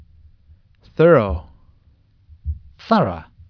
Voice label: "THOROUGH", Pronunciation

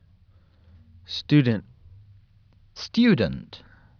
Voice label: "STUDENT", USUK Pronunciation

